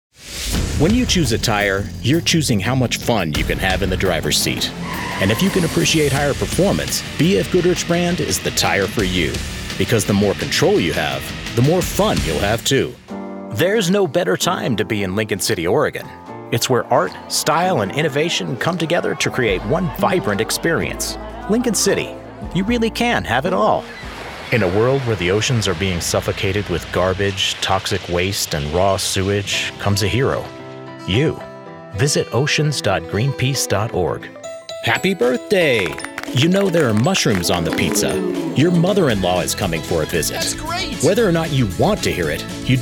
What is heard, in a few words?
Commercial; voice over